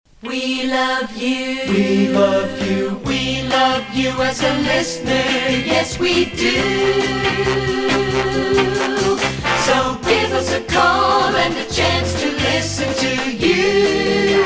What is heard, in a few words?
Phone-In Show Promo